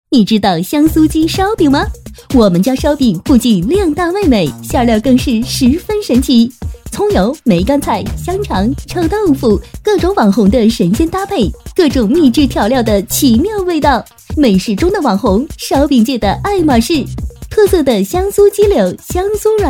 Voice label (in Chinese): 女, 产品广告, 香酥鸡烧饼, 轻快